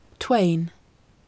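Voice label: "twain", English, female; Modern English; two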